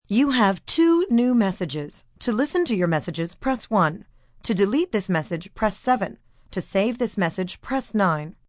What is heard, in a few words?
2 New Messages, Voicemail, telephone messages, female